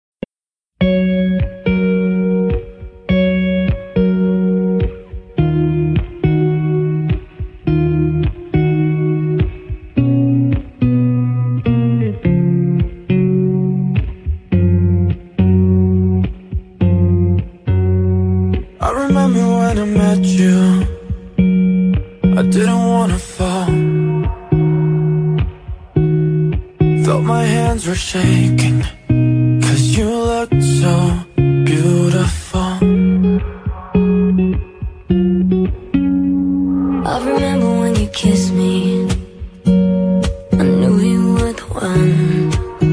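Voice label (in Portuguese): gls feminina romântica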